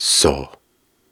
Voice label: Soft Syllables and Imaginary Words, Viking Vocal